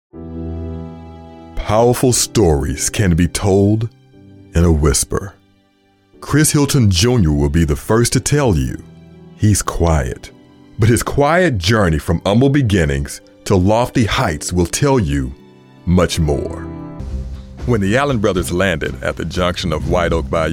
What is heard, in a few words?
narration